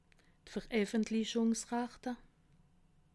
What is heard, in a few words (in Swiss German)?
"vereffentlischungsrachte", alsace